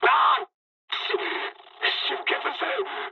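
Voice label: Japanese damaged pilot mood high; War Thunder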